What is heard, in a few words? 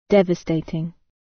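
"devastating", British; Language, linguistics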